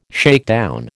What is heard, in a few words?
"shakedown", American